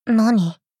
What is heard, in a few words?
doubt